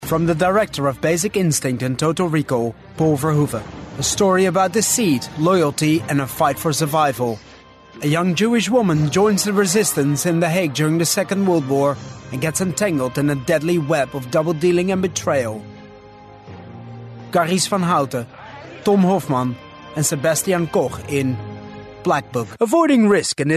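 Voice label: Dutch, male, English Sample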